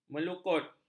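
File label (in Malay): "melukut", Malay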